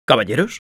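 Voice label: battle cry, Spanish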